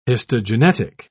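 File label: "histogenetic", British English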